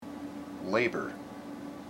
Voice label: "labour", IPA